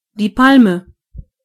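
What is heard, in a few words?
palm tree, German voices words; gcompris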